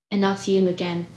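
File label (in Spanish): Voice Acting es F, Spanish, female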